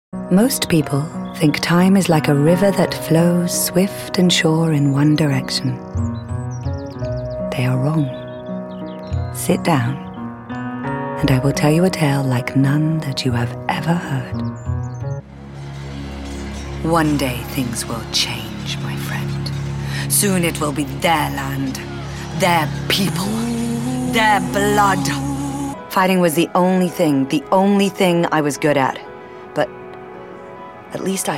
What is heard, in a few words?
Character